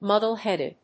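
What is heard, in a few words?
"muddle-headed", English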